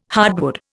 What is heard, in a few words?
"hardwood", Indian